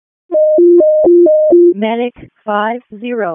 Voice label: EMS ALERT; wake; p25

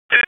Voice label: Police North District Tac 1, p25; Raleigh; wake

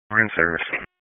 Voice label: PS TAC 16, P25 recordings

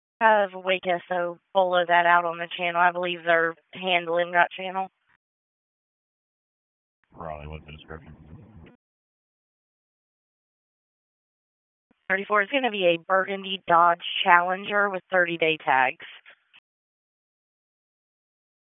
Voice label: Police Northeast District Disp, p25

